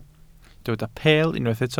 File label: "peel", Welsh